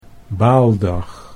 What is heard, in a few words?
"baaldag", Dutch